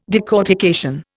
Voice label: "decortication", Indian